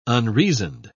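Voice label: "unreasoned", British English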